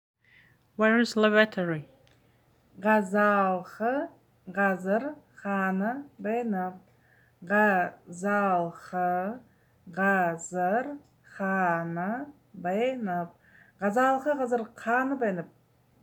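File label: Where is lavatory, Buryat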